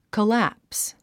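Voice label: "collapse", English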